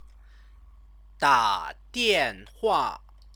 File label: "dadianhua", Chinese